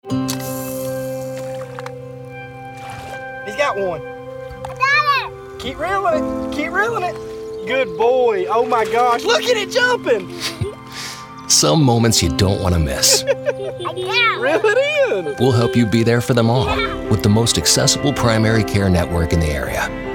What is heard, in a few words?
radio fishing